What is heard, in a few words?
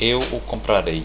buy, portuguese